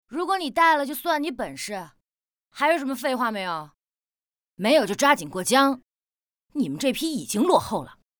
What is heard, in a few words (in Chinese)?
模仿, 男